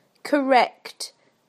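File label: "correct", British English; PictureDictionary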